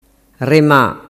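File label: "rema", Sicilian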